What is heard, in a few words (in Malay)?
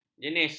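"jenis", Malay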